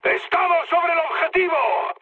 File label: near waypoint, mood high, speech; War Thunder